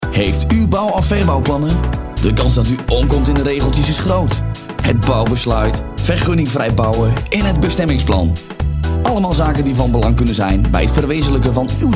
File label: NL; rikplan